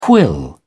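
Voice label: "quill", British English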